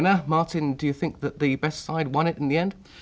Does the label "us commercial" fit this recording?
No